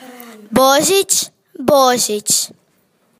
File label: "Christmas", cro